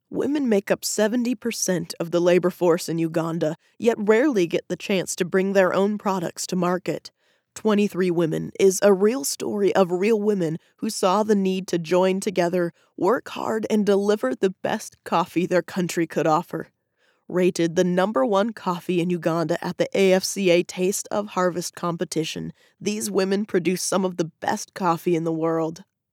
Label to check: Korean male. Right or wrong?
Wrong